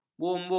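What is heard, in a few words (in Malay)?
"bumbu", Malay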